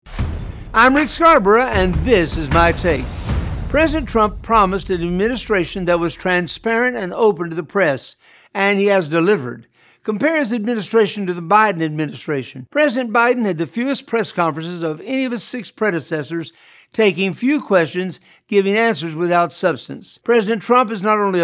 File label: radio spot